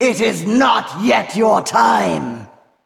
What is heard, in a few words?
Female Val'kyr TRIGGER RAMPAGE